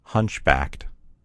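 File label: "hunchbacked", American English; gcompris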